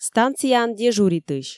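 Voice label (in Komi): станцияын дежуритысь, Komi, female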